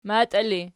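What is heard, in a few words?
"matii" ergative, Archi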